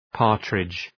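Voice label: "partridge", English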